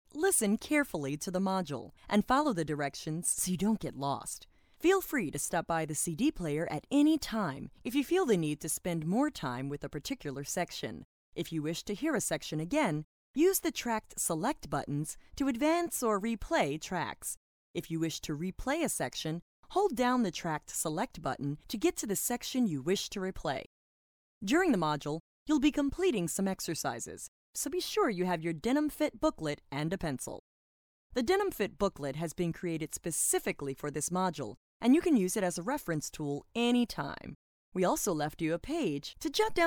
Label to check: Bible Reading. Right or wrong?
Wrong